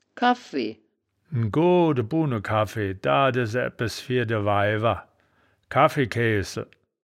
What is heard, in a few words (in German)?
"kaffi", wittlich